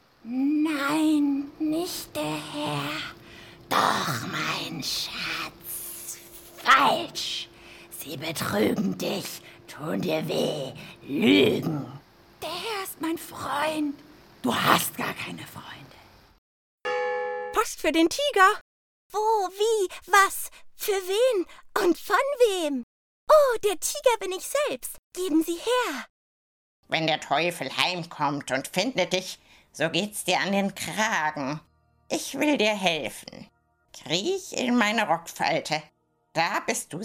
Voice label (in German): Trickstimmen